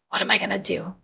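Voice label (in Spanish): Voice Acting, es, female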